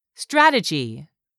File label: "STRATEGY", english; 3000 words